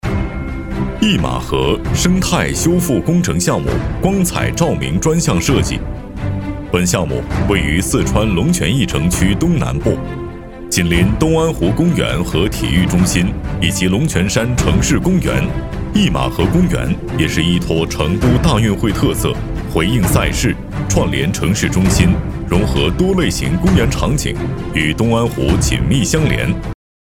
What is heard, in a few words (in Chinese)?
男, 专题 施工动画 工程介绍 可调薄厚节奏